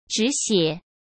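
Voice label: "止血", Mandarin Chinese; chinese flashcards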